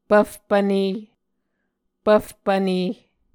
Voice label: "pvfpvne", Muscogee

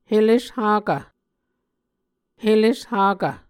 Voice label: "heleshakv", Muscogee

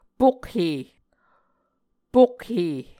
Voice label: "pokhe", Muscogee

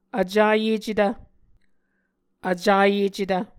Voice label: "vcayecetv", Muscogee; Mvskoke Words with Voicing Consonants; Martin, Jack B., and Margaret McKane Mauldin. 2023. A Dictionary of Creek/Muskogee; SIL International

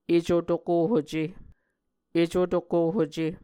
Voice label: "eco tokohuce", Muscogee

